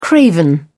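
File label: "craven", English, British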